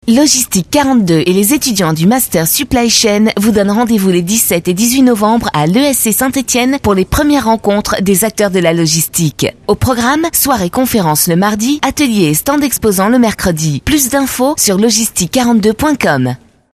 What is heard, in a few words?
spot, radiopub